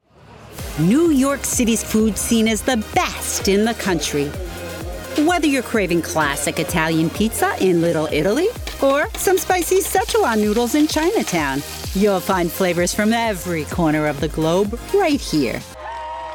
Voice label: VIDEO NARRATIVE